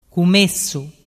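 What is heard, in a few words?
"cumessu", Vernazzese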